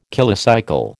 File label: "kilocycle", American